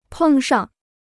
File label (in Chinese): "碰上", Chinese